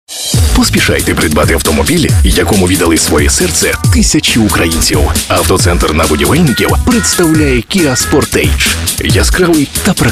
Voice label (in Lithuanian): Ukrainieciu balsas, vyras